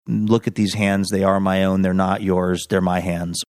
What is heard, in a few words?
reciting